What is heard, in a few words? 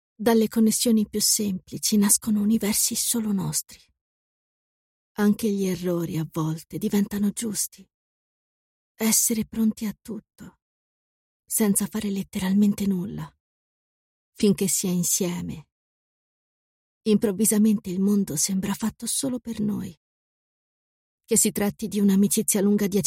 female italian voice over narrative